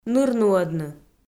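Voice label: "нырнуӧдны", Komi, female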